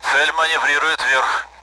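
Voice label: Speech, Russian, AWACS; target is maneuvering up; DCS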